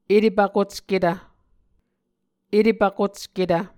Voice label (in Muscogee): "etepvkocketv", Muscogee; Mvskoke Words with Voicing Consonants; A Dictionary of Creek/Muskogee; SIL International